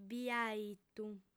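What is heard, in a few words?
"biaitu", female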